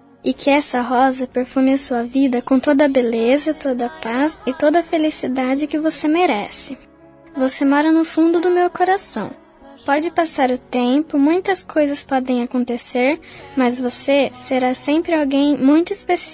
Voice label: voz infantil madrinha fem, Aniversário Voz Infantil Madrinha – Voz Feminina

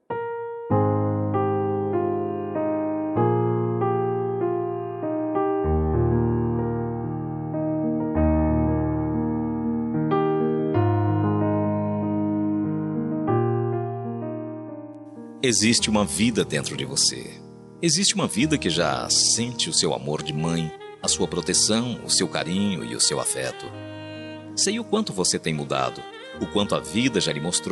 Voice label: futura mae masc, voz masculina; dia das maes para futura mamae

